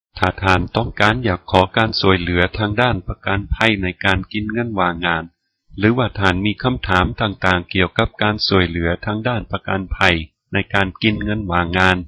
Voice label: laotian